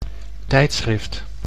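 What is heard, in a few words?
"tijdschrift", Dutch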